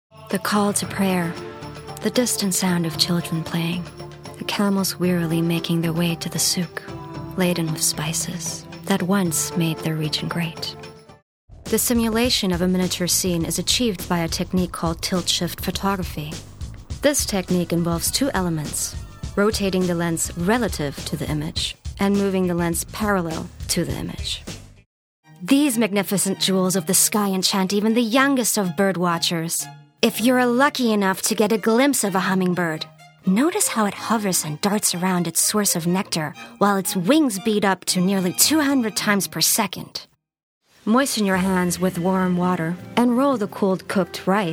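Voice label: American, narration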